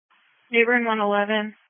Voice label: Police Patrol 1 (Dispatch)